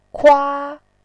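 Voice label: "kua1", Mandarin Chinese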